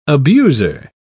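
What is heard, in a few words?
"abuser", English, British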